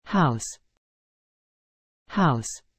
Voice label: "house", English